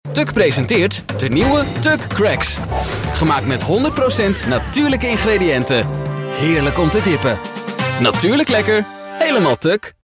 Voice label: dutch voiceover commercial